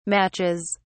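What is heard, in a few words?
"matches", British English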